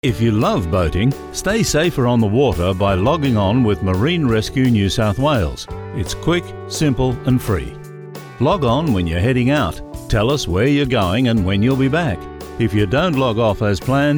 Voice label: Log On Off Music English